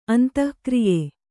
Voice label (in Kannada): "ಅಂತಃಕ್ರಿಯೆ", Kannada